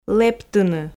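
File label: "лэптыны" Komi, female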